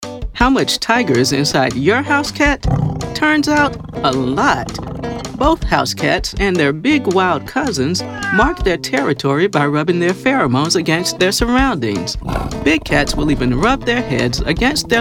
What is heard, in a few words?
Narration